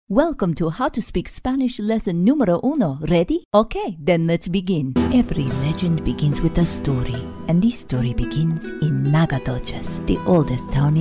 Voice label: Latina

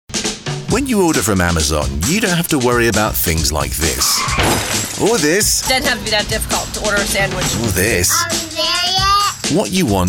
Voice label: Commercial

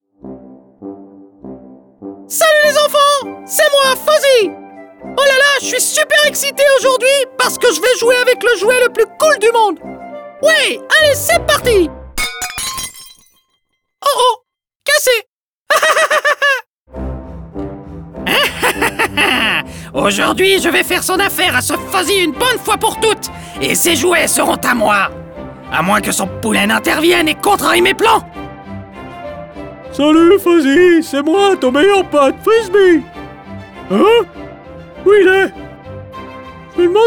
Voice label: character cartoon (FR)

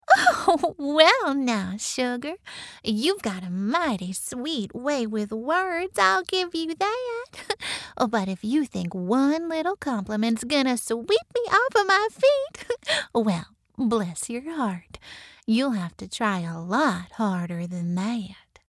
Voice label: Young Southern Ingenue, southern us natural

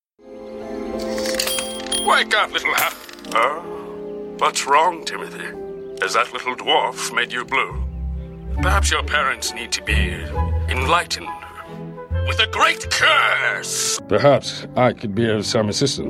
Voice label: VOICEOVER

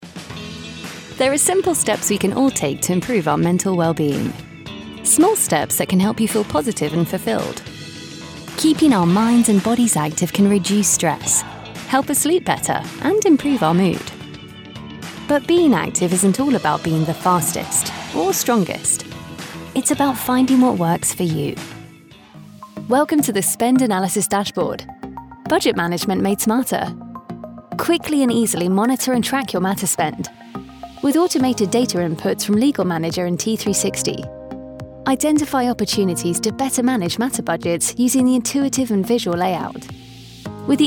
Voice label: Corporate

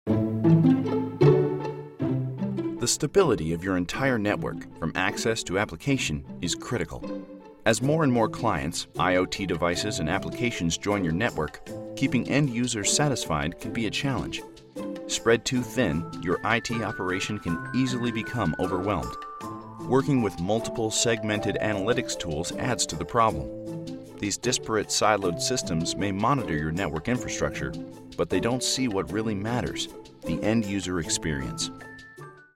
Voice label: Explainer

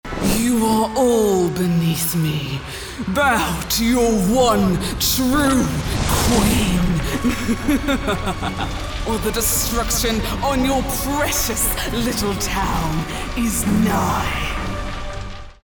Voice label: Villain, en-GB; epic

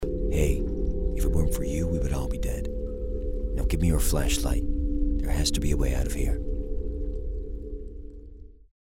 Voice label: Horror Suspense Game Hero Neutral US; standard us; standard us | natural

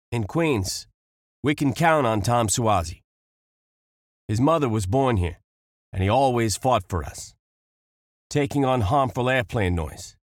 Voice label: new york accent; Location: New York, NY, USA; english

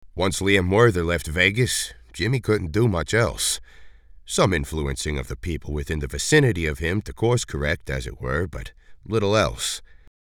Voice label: New York Accent

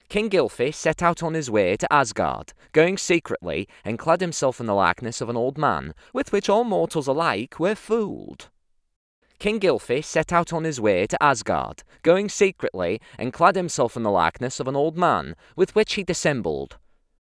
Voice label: Lancashire

